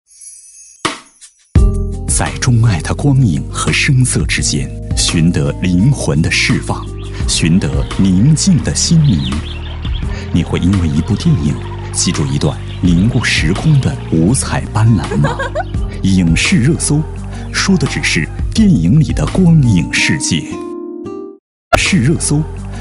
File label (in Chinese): 男 【广播片头】, 浑厚磁性, 国语男声配音; 广西新闻广播 包装带